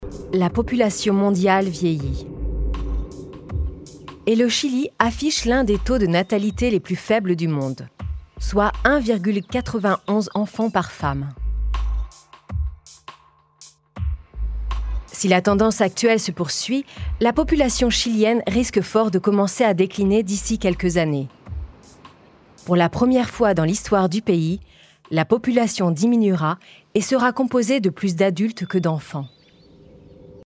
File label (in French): Documentaire Narration; Life of Science